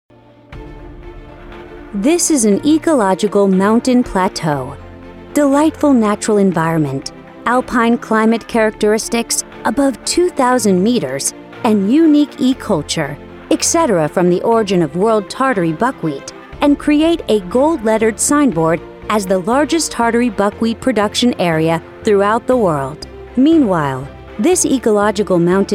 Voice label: 外籍女; 生态发展

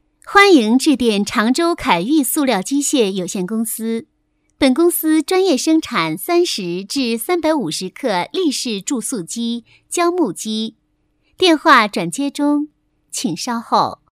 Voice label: 女, 彩铃, 国语女声

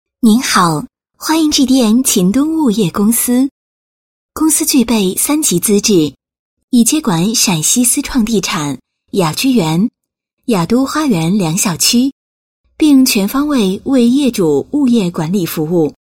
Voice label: 女 彩铃; 某物业公司彩铃

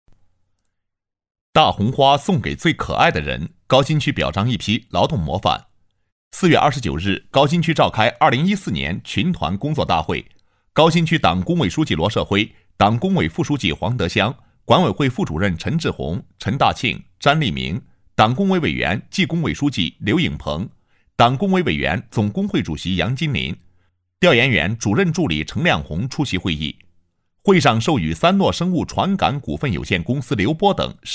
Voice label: 新闻 高新区表璋劳动模范新闻录音,男; 干音; 新闻广告专题颁奖

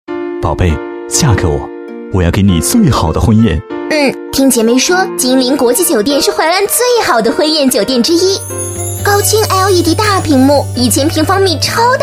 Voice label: 男声 浑厚磁性, 电台广告男女对播; 金陵酒店

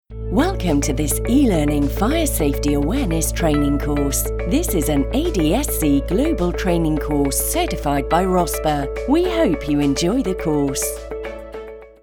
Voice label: 外籍英女 知性舒缓 消防安全课

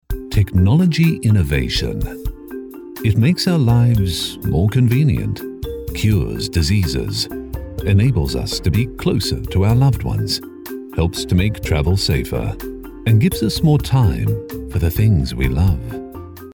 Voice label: 外籍英男-轻快科技感

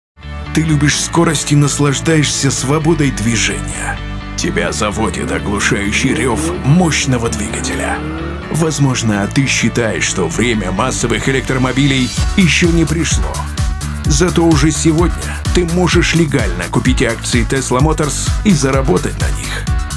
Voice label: 外籍俄语男 股票宣传; CPB

